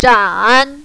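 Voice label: "zhan" third tone, mandarin, female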